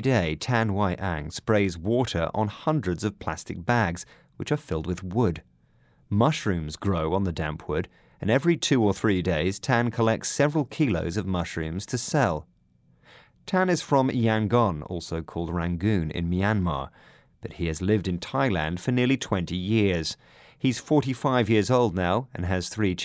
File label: news report; burmese migrant